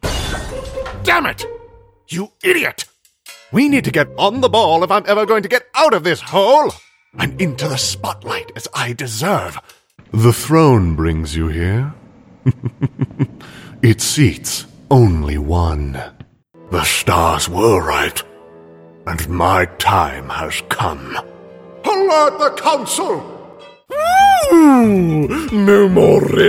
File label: Animation Voiceover